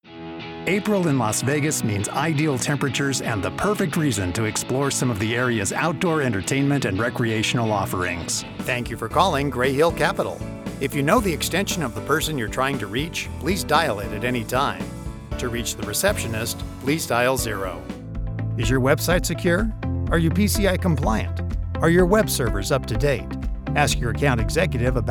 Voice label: Telephony